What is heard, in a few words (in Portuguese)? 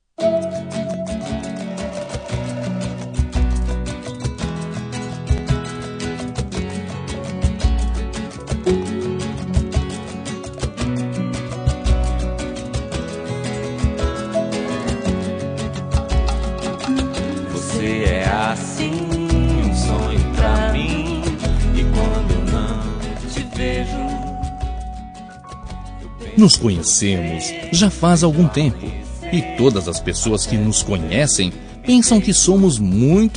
amizade colorida masc, Voz Masculina; Telemensagem Amizade Colorida